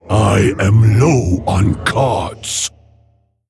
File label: Male Mogu Low Cards